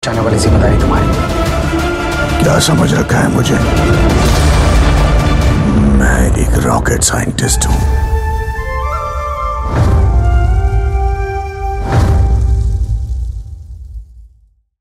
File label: Hindi Trailer; Rocketry